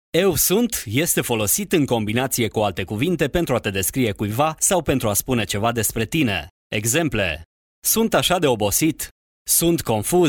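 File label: Romanian elearning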